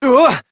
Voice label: Fail Interjections, Adult, en

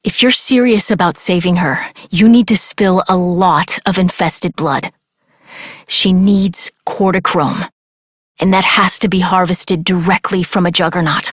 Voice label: Operator Voice; JadeShadowsQuest; Mission Three

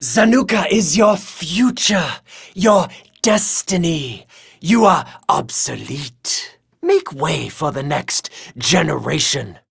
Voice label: Taunt Neutral

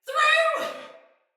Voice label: Shoot Arrow, Soldier Female; Battle; Barks; Dialog; Sinecure Soldier Female A

